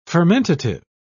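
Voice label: "fermentative", English, British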